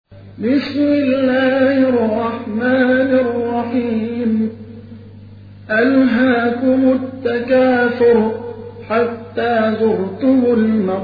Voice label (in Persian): ترتیل سوره مبارکه تکاثر